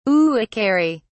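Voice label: "uakari", British English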